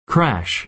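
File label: "crash", American English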